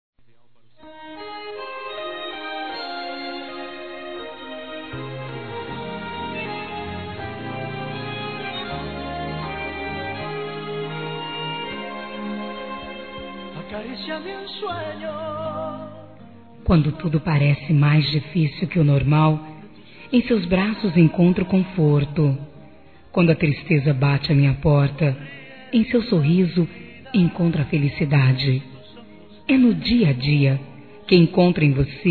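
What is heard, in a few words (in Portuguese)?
PARA CASAL, female, Dia Dos namorados; Telemensagens 2017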